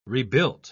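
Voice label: "rebuilt", British English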